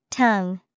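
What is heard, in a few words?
"tongue", English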